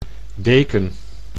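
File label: "deken", Dutch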